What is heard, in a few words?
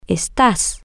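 you are informal temporary, Spanish, female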